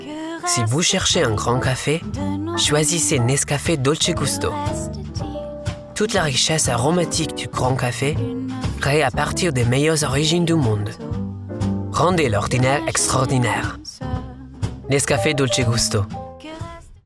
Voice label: French